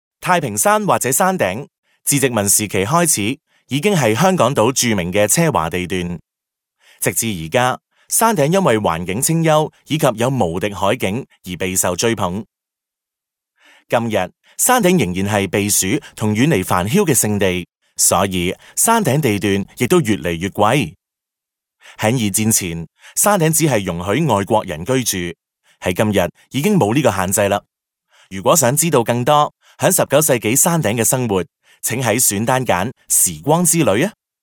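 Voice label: Cantonese male corporate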